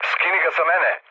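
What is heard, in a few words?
Serbian aircraft damaged mood low, speech; War Thunder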